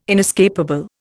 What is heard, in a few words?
"inescapable", Indian